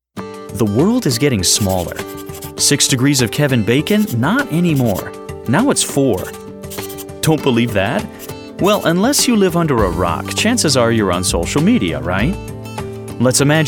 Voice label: Corporate VO